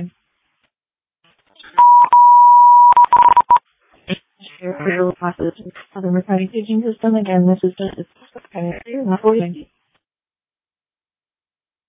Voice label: Waverly Fire, dispatch